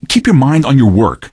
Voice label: answer, male; Garry's Mod; hl2

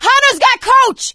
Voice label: hunter pounced, survivor voice; Left 4 Dead 2; producer; coach

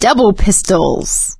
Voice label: take pistol, survivor voice; Left 4 Dead 2; producer

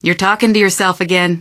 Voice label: answer, female; Garry's Mod; hl2

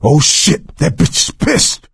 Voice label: witch getting angry, coach; Left 4 Dead 2

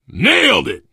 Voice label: kill confirmation, biker, survivor voice

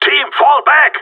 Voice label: radio bot fallback; player vo gsg9

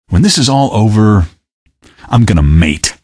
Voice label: question, male; Garry's Mod; hl2

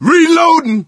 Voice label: reload intense, survivor voice

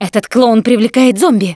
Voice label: see clowns, russian; Producer

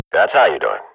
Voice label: radio bot nice shot, Counter-Strike swat; Global Offensive; player vo

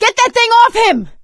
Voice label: hunter pounced, survivor voice; Left 4 Dead 2; producer

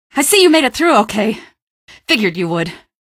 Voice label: female; Garry's Mod; hl2; Streetwar; tunnel

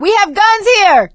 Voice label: spot weapons, survivor voice; Left 4 Dead 2; producer

